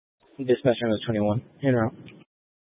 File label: Grand Junction Fire Department Dispatch